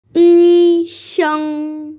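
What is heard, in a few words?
"yi sheng", Mandarin Chinese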